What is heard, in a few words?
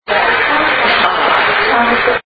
a male says FOX; EVP